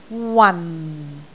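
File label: "wan4", Cantonese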